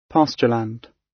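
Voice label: "pastureland", British English; Time, history; Thời gian, lịch sử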